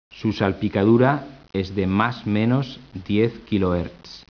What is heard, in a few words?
SPANISH; speech